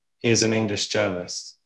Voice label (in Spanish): Voice Acting es M